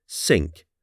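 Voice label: "sink", English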